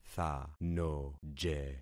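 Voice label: "thanoje", concatenated syllables; Affixing experiment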